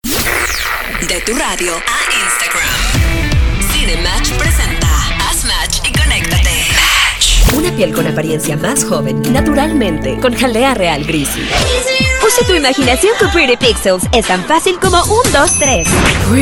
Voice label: Spanish Mx F Voicereel

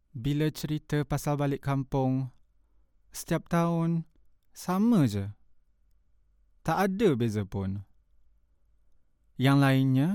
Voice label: Malay M Narration, malaysian

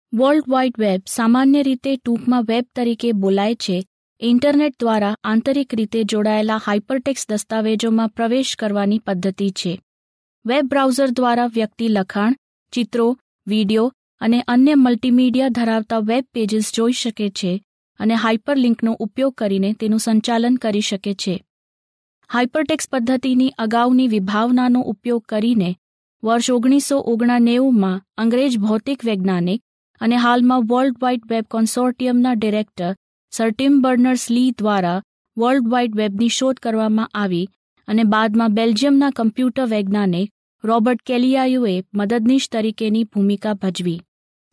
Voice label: Gujarati F Narration; voice over

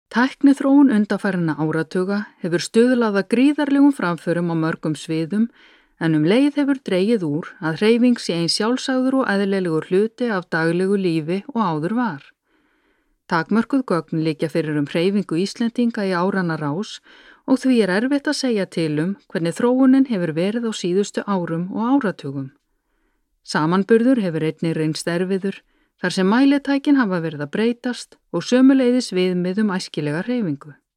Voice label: Icelandic F Narration

